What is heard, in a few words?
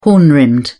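"horn-rimmed", British English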